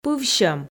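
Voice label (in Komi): "пывсьӧм", Komi, female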